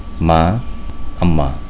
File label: "amma", Bengali, Mother